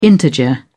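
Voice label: "integer", British English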